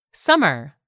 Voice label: "summer", English; 3000 words

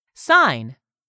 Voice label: "SIGN", english; 3000 words

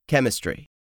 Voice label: "CHEMISTRY", english; 3000 words

